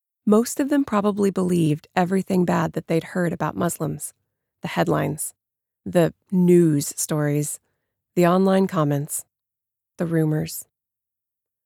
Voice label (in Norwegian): us fagbok, english-us, female